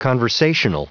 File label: "conversational"